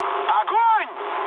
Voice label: attack position, mood med, speech ru; War Thunder